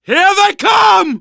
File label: incoming, manager, survivor, voice; Left 4 Dead 2; left4dead2 dlc2